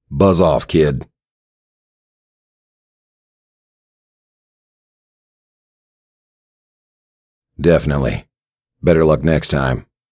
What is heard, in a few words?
kid reply; Pitch Black - A Detective's Demise